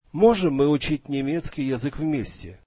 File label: russian can we learn German together